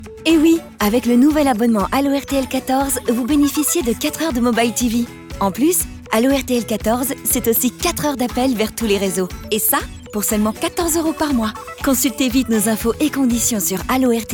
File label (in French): Voix F